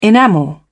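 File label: "enamel", British English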